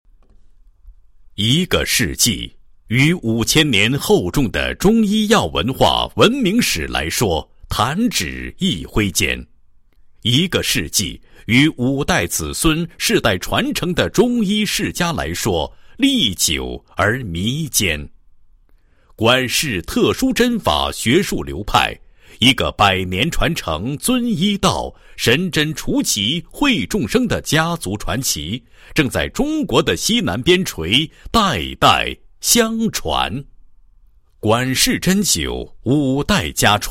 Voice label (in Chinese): 男 医疗专题; 管氏特殊针法学术流派专题片